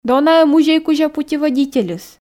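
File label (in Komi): "дона-ӧ музей кузя путеводительыс", Komi, female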